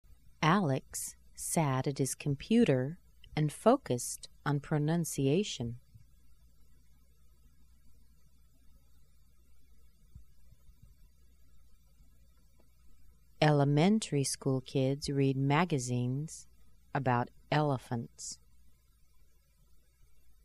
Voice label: schwa sentences slow, American accent

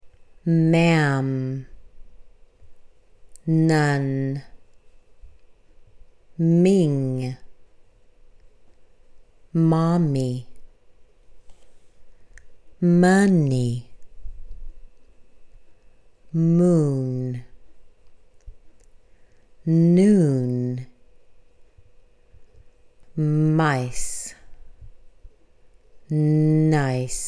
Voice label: M N NG S words slow, American accent

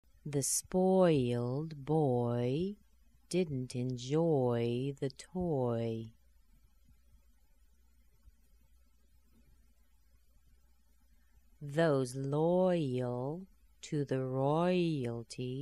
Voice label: oy sentences slow, American accent

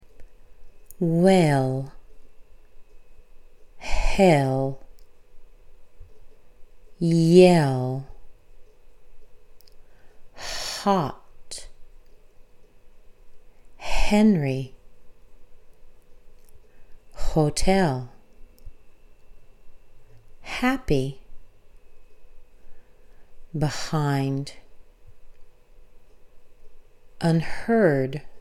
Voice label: H W Y words Slow, American accent

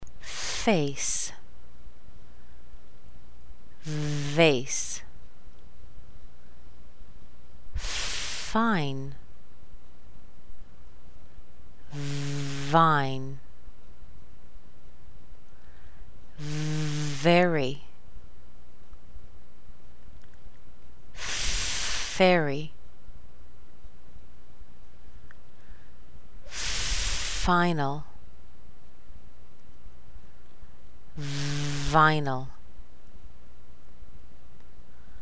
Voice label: F V words slow, American accent

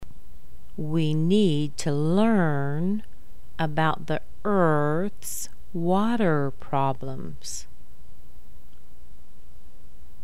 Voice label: R sentences slow, American accent

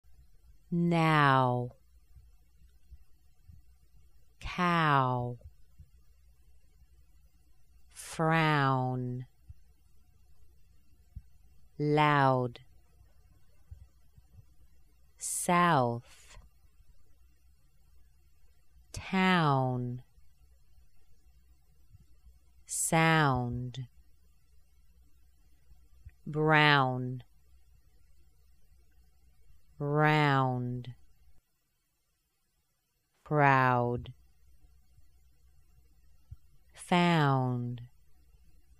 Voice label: aew words slow, American accent

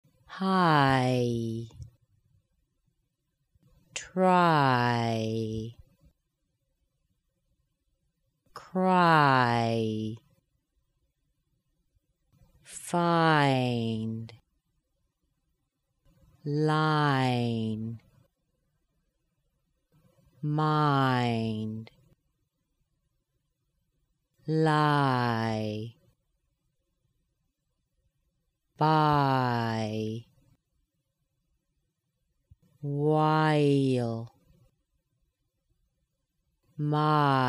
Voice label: ay words slow, American accent

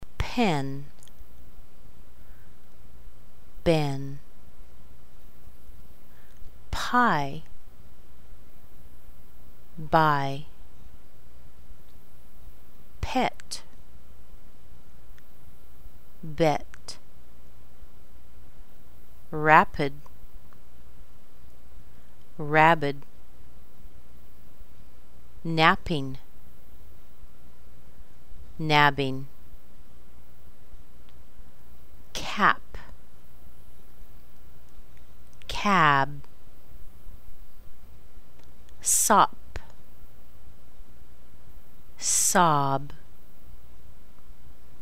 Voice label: P B words slow, American accent

